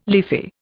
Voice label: "leafy", Indian